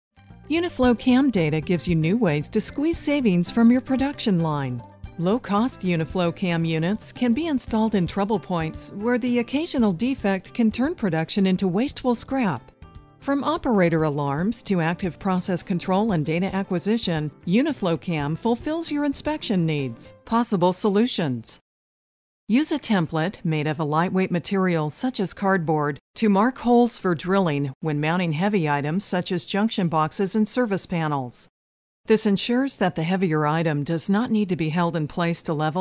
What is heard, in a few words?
Narration